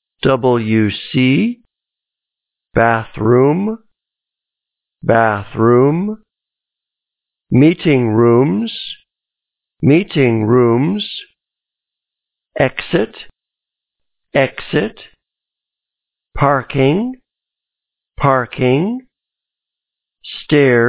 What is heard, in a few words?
where male